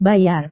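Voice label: "bayar", Indonesian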